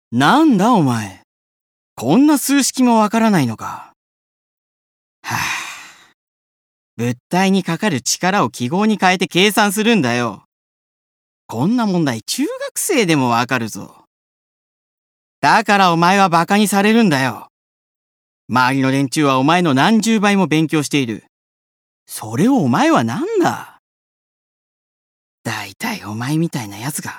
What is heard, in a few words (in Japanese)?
蔑み